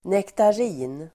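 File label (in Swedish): "nektarin", Swedish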